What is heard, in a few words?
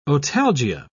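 "otalgia", British English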